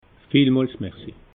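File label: "viel mols merci", Alsatian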